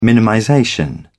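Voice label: "minimization", British English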